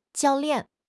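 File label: "jiao4 lian4", Chinese; 汉语口语新时代-初级下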